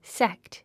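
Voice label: "sect", English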